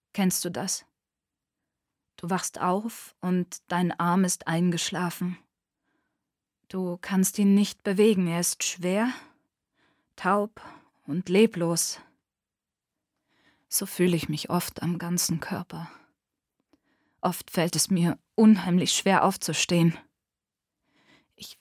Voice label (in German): Monolog